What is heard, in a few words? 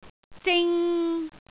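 "zing3", Cantonese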